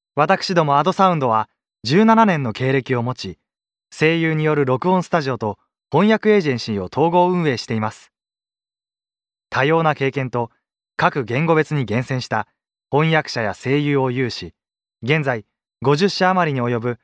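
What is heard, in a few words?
Japanese, male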